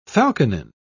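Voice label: "falconine", British English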